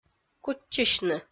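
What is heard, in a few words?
"куччысьны" Komi, female; kpv audio dict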